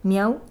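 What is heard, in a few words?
"miau", Spanish; gleitlaute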